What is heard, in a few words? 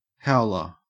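"howler", Australian English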